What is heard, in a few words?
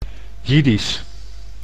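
"Jiddisch", Dutch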